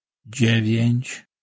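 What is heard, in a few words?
"djevjench", Polish, male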